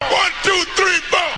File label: one two three four, male spoken word; MALE MISC